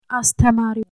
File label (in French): enseignant